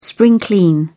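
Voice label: "spring clean", English, British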